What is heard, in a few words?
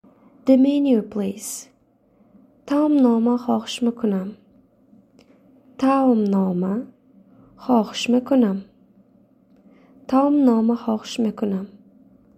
The menu please, tajik